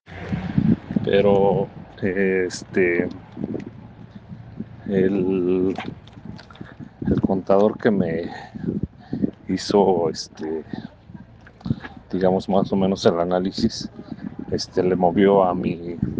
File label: whatsapp ptt